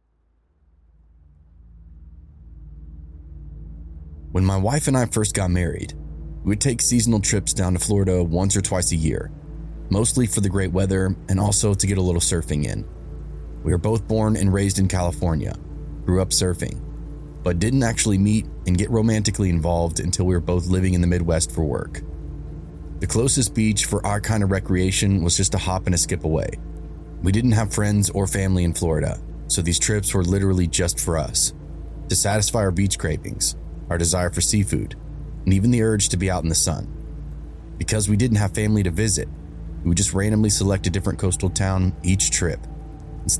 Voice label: disturbing vanlife stealth camping stories rain haunting ambience